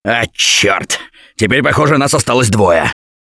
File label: Double Death Response, russian, Survivor Voice; Gambler